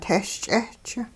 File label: swallow bird, hupa